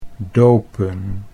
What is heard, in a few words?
"dopen", Dutch; 'to baptize' 'to dip'